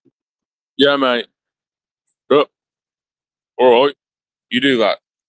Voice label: voice message